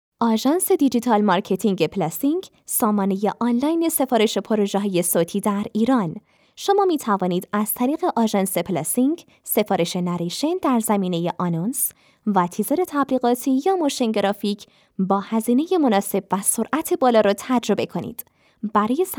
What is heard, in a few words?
narrator